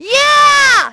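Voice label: "yeah", British; Splashdown; XBOX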